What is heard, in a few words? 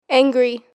"angry"